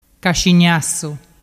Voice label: "cascignassu", dialettu

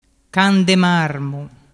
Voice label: "candemarmu", dialettu

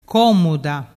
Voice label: "comuda", dialettu

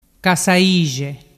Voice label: "cassaixe", dialettu

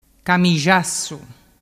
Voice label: "camixassu", dialettu

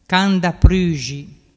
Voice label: "candapruxi", dialettu

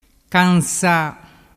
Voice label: "cansa", dialettu

